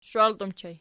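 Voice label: shwalti ergative plural, Archi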